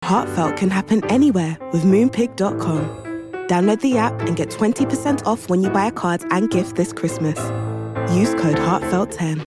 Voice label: Commercial; Moonpig

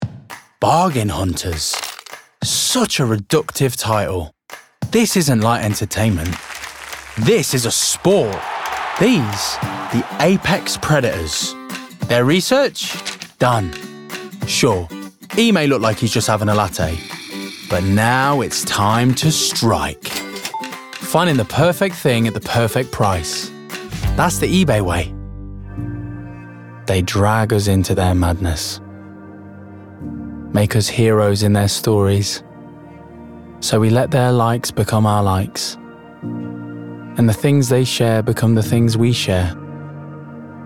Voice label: Commercial

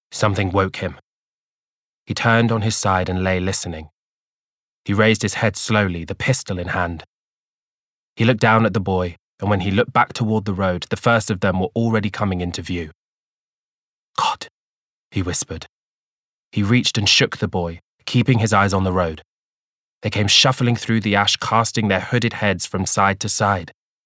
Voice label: audiobook; The Road

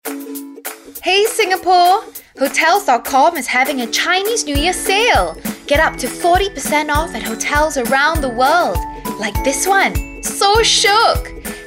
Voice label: commercial reel

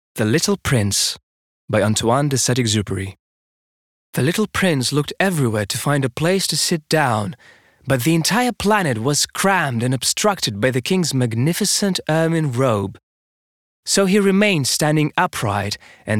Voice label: Audiobook; The Little Prince

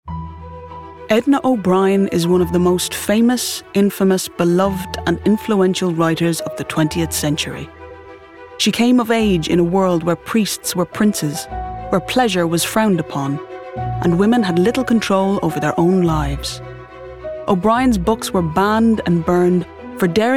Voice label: Documentary; Edna Obrien

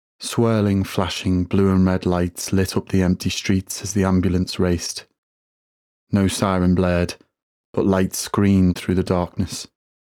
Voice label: Audiobook Natural Accent

